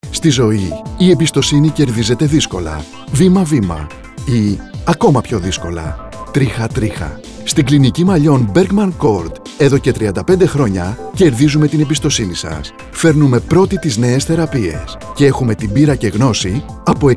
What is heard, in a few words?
radio spots; trixoptwsi metamosxefsi malliwn therapies; trixa; athens dieuthinseis; athina